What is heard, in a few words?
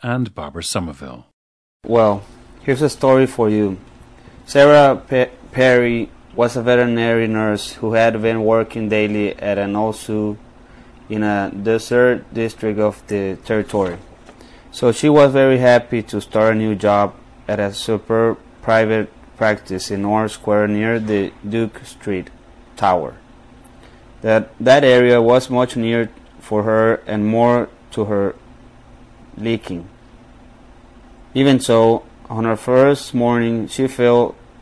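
texas, International Dialects of English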